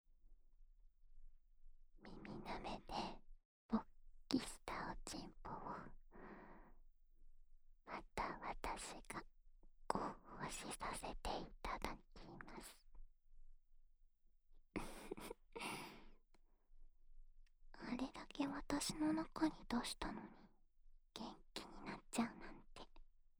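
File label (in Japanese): 耳元で囁きながら（左耳）, ASMR; 手コキ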